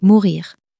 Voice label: "mourir", French

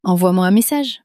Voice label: "envoie moi un message", French

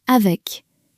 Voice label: "avec", french

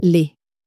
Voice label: "les", French

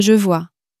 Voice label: "je vois", French

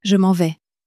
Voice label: "je m en vais", French

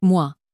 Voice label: "moi", French

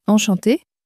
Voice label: "enchante", french; flashcards

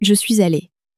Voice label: "je suis alle", French

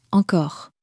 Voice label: "encore", French

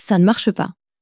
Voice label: "ca ne marche pas", French

